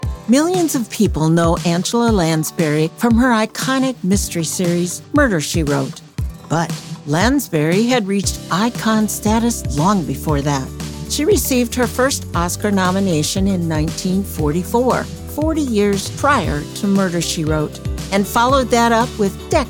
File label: narration biography; Vibrant Voices